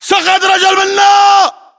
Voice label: reaction casualty generic, battle chatter, english